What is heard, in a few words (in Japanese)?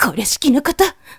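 "koresikinokoto", Japanese woman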